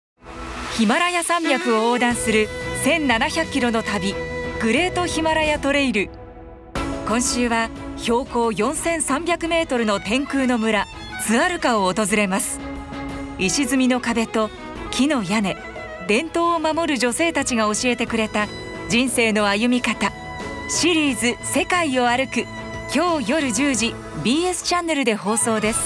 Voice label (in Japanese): ネイチャードキュメンタリー